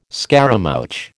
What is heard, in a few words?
"scaramouch", American